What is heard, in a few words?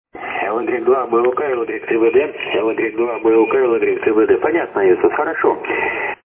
test SSB